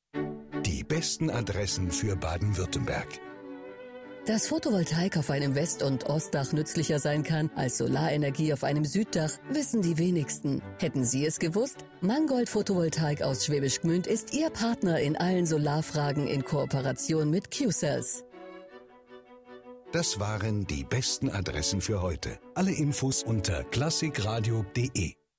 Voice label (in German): Werbung Klassik Radio